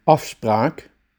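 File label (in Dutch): "afspraak", Dutch; services; the town hall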